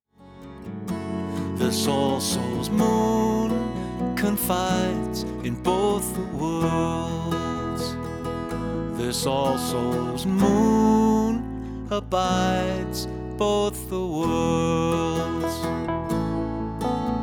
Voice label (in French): exemple voix avec compression